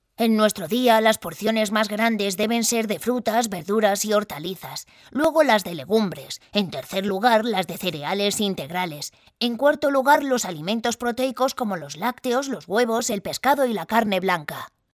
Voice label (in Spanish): locutora niño spanish child voiceover